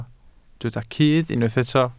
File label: "cudd", Welsh